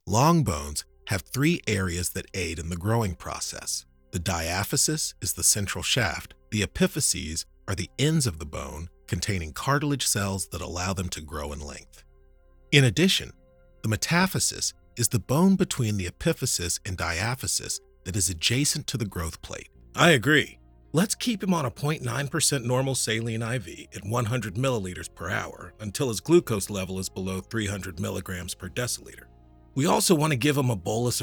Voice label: Medical Narration

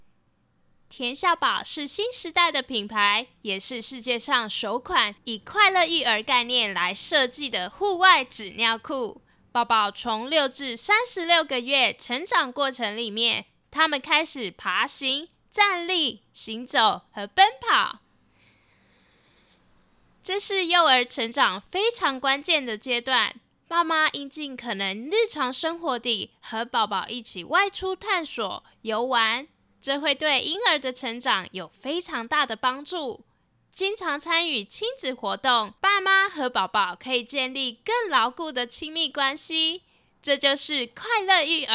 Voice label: Taiwanese Mandarin Baby Diaper Commercial

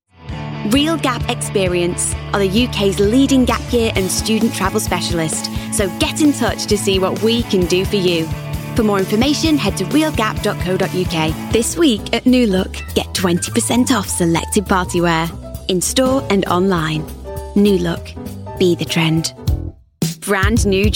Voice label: Commercial Reel

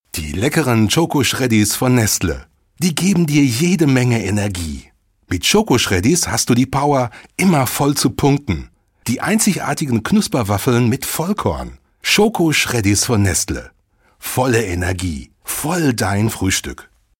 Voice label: Crunchy Commercial

